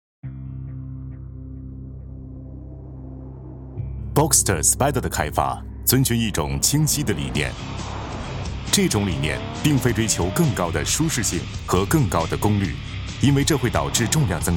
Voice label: 男 广告, 国语男声配音 温情人物专题; Boxster Spyder

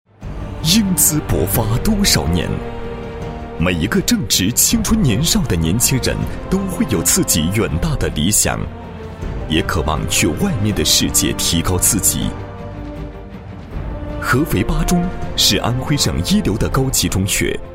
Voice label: 男4, 国语男声配音, 男04大气专题; 合肥八中

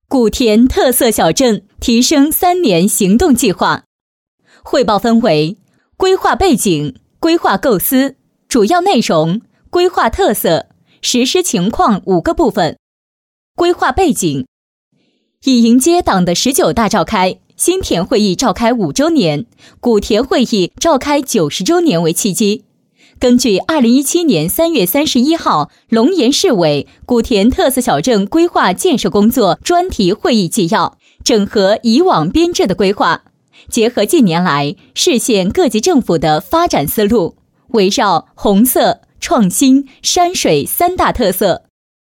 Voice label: 女 汇报 特色小镇; 古田特色小镇

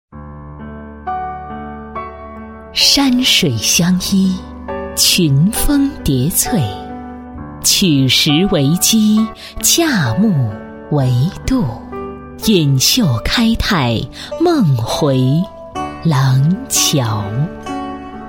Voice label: 女4号 大气韵味, 国语女声配音; 中国廊桥; 优雅配音

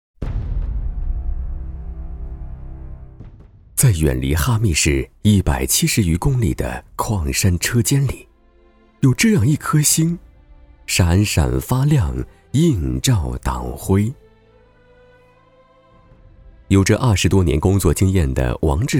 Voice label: 男 专题 人物 纪录 一名党员

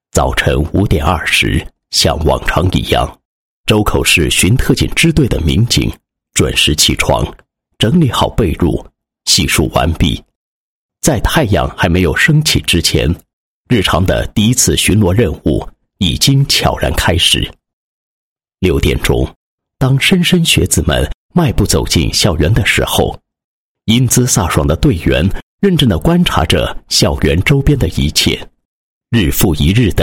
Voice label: 男 警队 特警队的一天

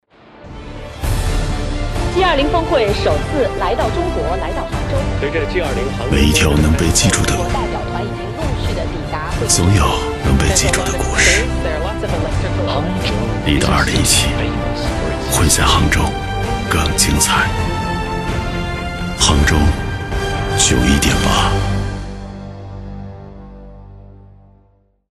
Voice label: 电台频宣, 大气神秘; 杭州918

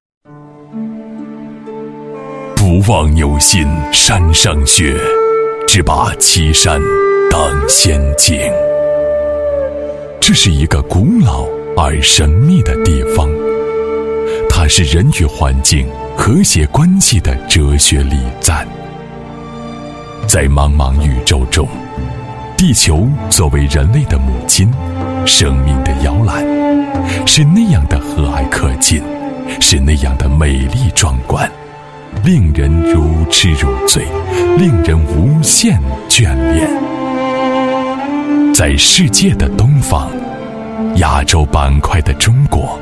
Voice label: 男 专题 纪录片风格 磁性优雅; 国语男声配音; 祈连山脉

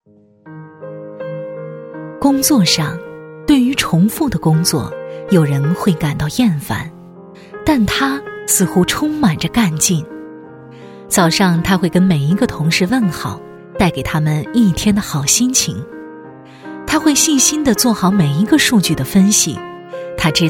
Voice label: 旁白 人物

